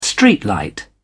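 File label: "streetlight", English, British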